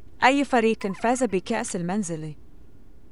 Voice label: arabic